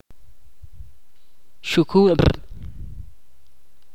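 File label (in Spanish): "río", Ch'orti'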